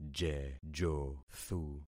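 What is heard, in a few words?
"jejothu", concatenated syllables; Affixing experiment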